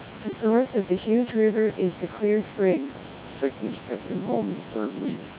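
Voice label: CODEC2 1600 bps speech, snr10db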